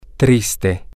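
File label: sad, Spanish, male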